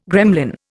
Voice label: "gremlin", Indian

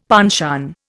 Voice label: "penchant", Indian; Dictionary

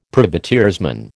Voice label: "privateersman", American

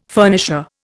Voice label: "furnisher", Indian

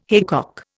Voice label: "haycock", Indian

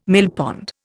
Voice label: "millpond", Indian

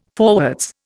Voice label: "forwards", Indian

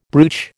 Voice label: "brooch", American

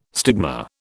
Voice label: "stigma", British

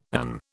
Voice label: "and", British

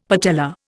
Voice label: "patella", Indian; Dictionary

